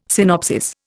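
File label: "synopsis", Indian English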